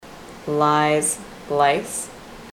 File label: lies lice, ontario